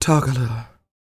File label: DIALOG, FEM SPOKEN; ILIO; Vocal Planet